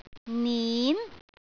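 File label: "nin2", Cantonese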